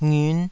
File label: "ngiun5", Taiwanese syllable